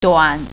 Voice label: "short", Mandarin

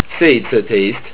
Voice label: seventeen, estonian

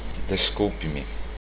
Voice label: excuse me, portuguese

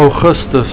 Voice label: "augustus", afrikaans

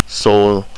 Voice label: salt, estonian

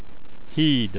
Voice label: bridge, hungarian

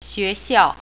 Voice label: school, mandarin

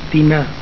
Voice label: "dinar", catalan

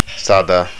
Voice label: "sada", Estonian Pronunciation (German); Hundert; Kurz

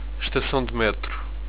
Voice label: subway st, europortuguese

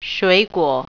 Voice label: "fruit", Mandarin

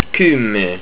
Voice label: ten, estonian

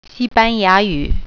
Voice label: "spanish", Mandarin

